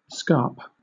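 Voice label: "scarp", British English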